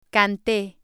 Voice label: "cante", spanish